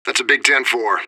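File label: radio bot response positive; fbihrt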